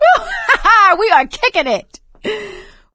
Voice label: hurrah, survivor voice; source engine; left 4 dead 2; producer